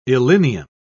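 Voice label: "illinium", British English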